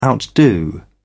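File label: "outdo", British English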